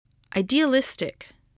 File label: "idealistic", voice spelling